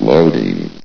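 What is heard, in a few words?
"loading", male synthesized voice; vox; source engine; hl1; video games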